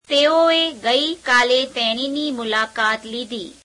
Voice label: gujarati, they visited her yesterday; 3rd object pronoun; તેઓએ ગઇકાલે તેણીની મુલાકાત લીધી; [teeo gueikeāle teeṇeīneī meuleākeāt leīdheī]